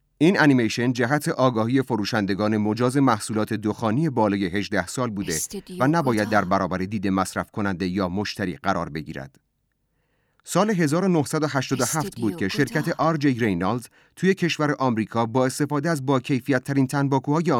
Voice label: narration